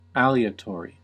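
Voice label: "aleatory" US English; pronunciations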